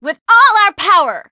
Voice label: variable chant